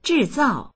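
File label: "zhìzào" Mandarin Chinese; 制造; 製造（する）、作りだす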